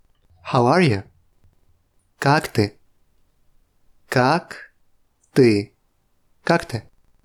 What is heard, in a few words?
RUS How are you